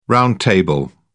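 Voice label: "round-table", British English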